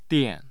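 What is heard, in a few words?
"dian", Mandarin Chinese, tone 4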